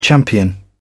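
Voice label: "champion", British English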